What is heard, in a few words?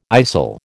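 "istle", American English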